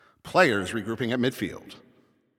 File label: Announcer Waiting For Players After Score